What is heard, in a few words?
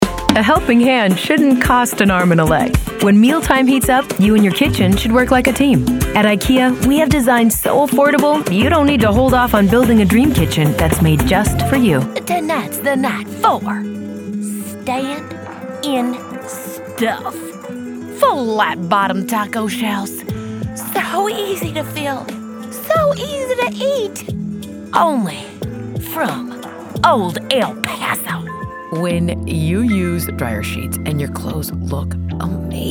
Comedic